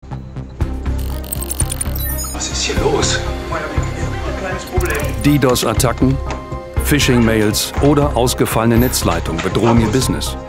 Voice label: Image Erklaerfilm Cyberkriminalitaet; Commercial (Werbung), Off, Presentation, Tutorial; Imagefilm EWE; German

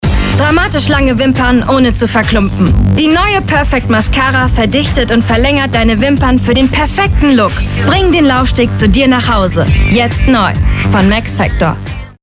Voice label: Werbung Beauty

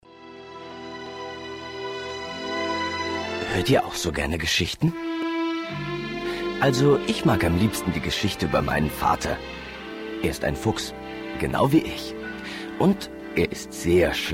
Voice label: hoerspiel

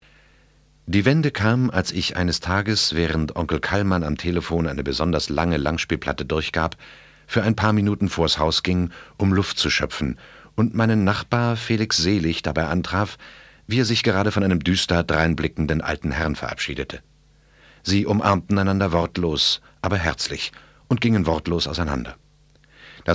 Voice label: erzaehlung

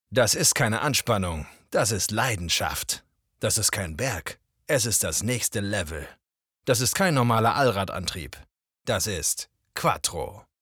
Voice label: Casting Werbung

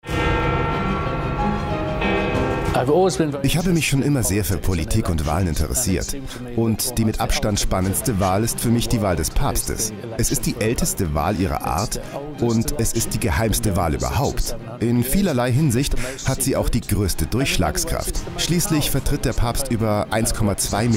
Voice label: voiceover; robert harris konklave

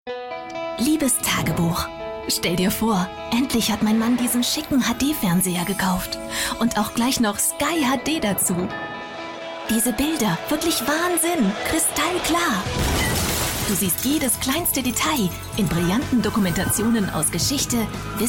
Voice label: Commercial (Werbung), plakativ, Mittel minus (25-45), German, Akzent: Chinese; Germany

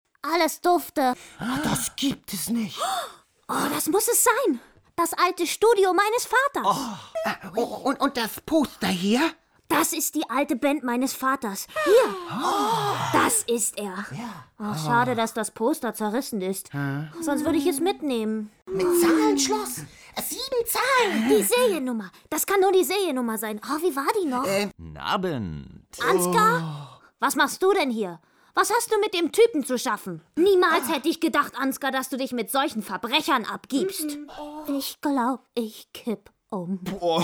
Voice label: trickstimme

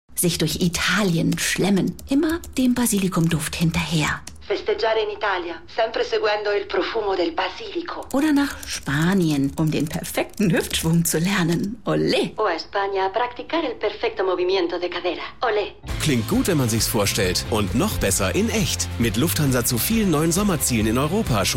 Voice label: Funk Spot; Lufthansa Italien

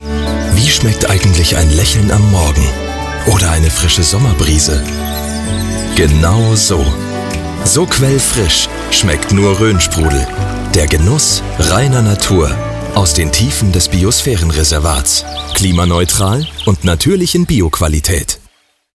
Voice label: Werbung; Rhoensprudel

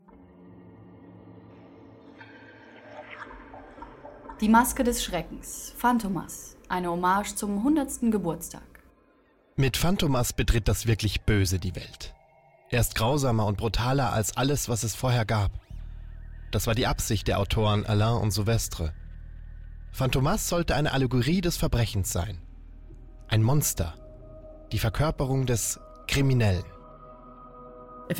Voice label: hörprobe hörspiel; fantomas

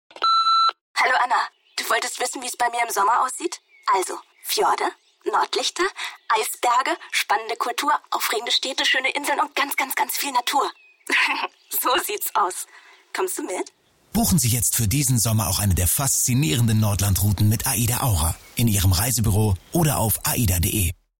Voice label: szene funk; aida

